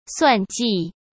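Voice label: "算计", Mandarin Chinese; chinese flashcards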